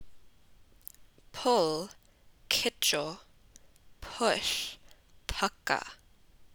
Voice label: Punjabi Pull Push

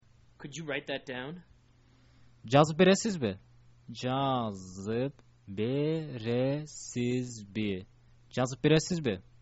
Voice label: could you write that down, kyrgyz

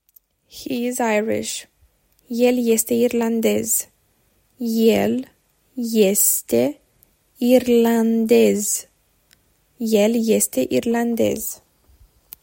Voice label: He is Irish, romanian

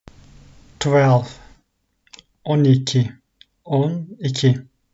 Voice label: twelve 12, Turkish; the talking turkish phrasebook

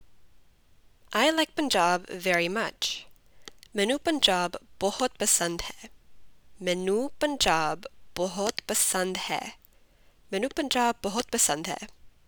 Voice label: Punjabi I like Punjab very much

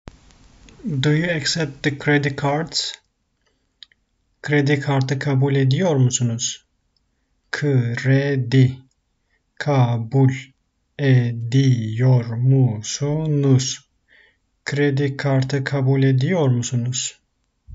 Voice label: "do you accept the credit card", Turkish; the talking turkish phrasebook

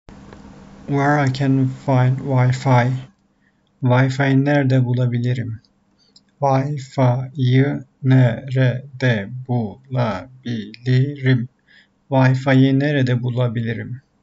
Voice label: where I can find wi fi, Turkish; the talking turkish phrasebook

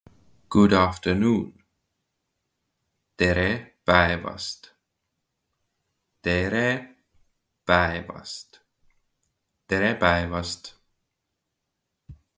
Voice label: Good afternoon, Estonian; the talking estonian phrasebook

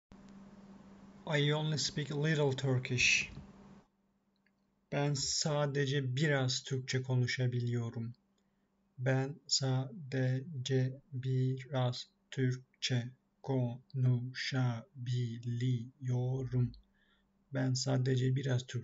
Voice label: i only speak, turkish; the talking turkish phrasebook

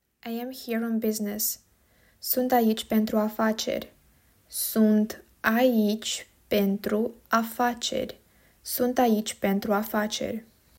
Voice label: I am here on business, romanian

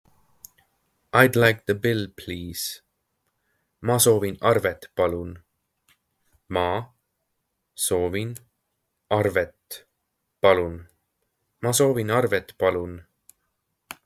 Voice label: I d like the bill please, estonian; the talking estonian phrasebook

